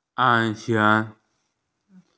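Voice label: "moreover", Mandarin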